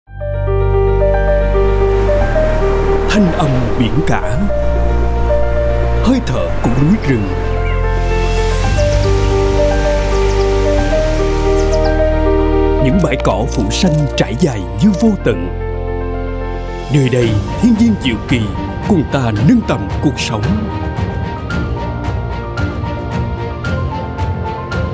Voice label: Vietnamese South Male; Du An San Golf